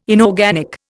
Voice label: "inorganic", Indian